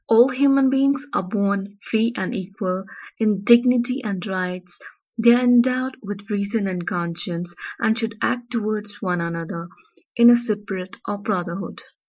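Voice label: English Kashmir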